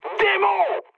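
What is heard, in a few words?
enemy detected, mood high, French; War Thunder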